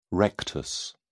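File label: "rectus", British English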